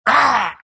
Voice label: troll pain; dota; troll warlord

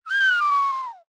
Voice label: sad; dota; marci

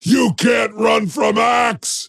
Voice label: attack pain; axe

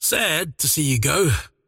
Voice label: last hit pain; dota; largo

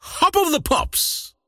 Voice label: croak big; dota; largo

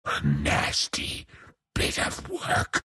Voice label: troll death; troll warlord

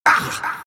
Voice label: monkey crown pain; dota; monkey king

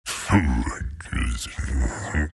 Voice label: anger; dota; earth spirit

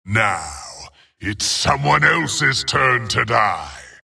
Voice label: wraith death; dota; skeleton king

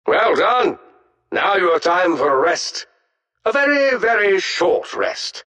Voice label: announcer victory; dlc defensegrid

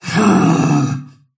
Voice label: anger; snapfire

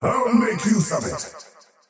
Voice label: last hit pain, faceless void; dota

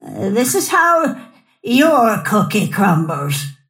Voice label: attack pain; snapfire

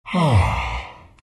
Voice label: treant happy

